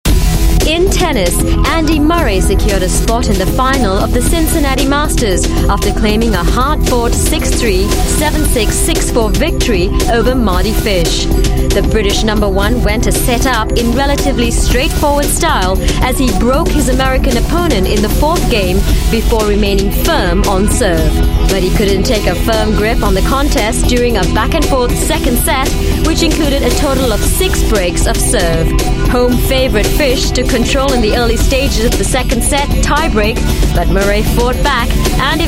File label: Asian English sports wrap up